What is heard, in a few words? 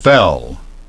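"fell", English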